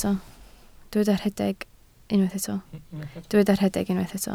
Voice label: "rhedeg", Welsh